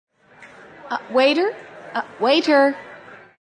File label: Waiter, Female; Waiter AMB; Talking; VoiceBox